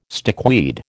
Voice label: "stickweed", American